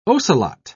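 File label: "ocelot", British English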